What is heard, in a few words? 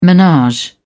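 "menage", British English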